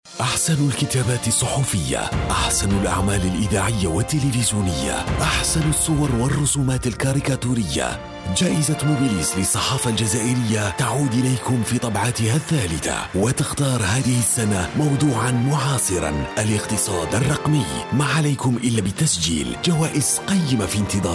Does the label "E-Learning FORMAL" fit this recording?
No